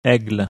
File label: "aigle", French